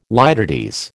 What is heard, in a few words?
"litotes", American